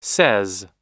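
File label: "says", US English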